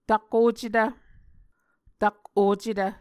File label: "tak ocetv", Muscogee